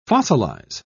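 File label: "fossilise", English, British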